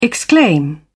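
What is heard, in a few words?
"exclaim", British English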